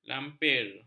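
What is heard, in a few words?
"lampir", Malay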